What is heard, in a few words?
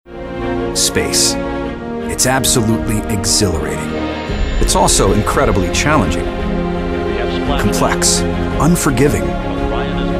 narration industrial